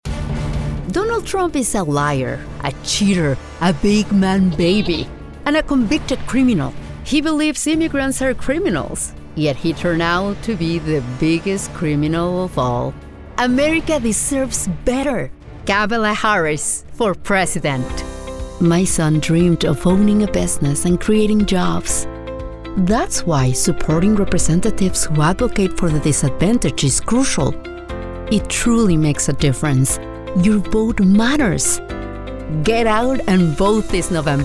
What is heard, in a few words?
English